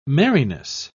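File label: "merriness", English, British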